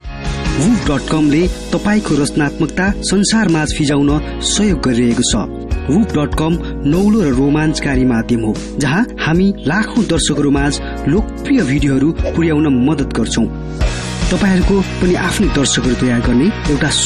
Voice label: NEPALI

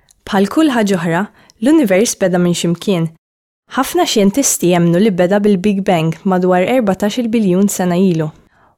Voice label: Maltese

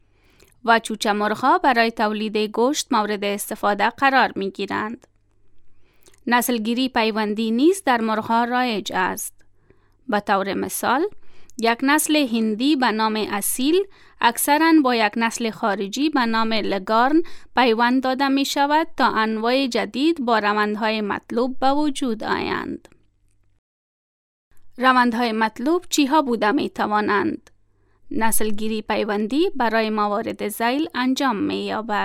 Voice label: Dari

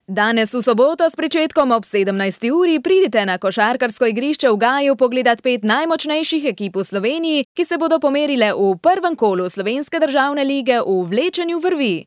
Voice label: Slovenian

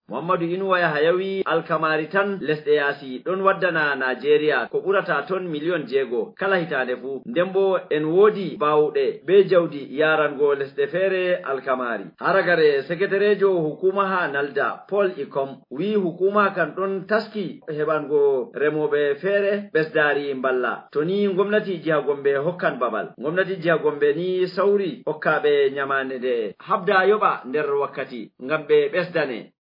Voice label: Fulani

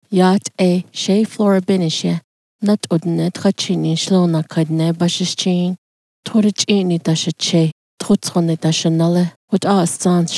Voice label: Navajo

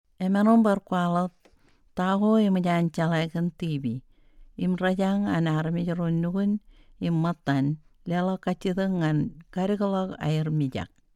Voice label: Marshallese

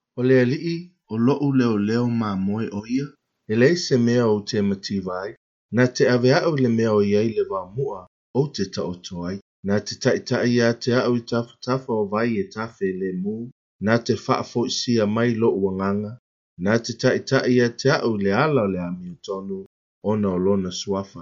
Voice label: Samoan

